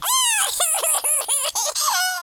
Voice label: lose loop, speech, Alien; Worms3D